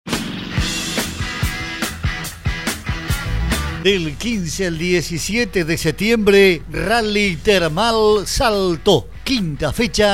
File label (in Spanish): PROMO; SALTO CIRCUITO